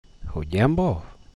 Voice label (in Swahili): "hujambo", greetings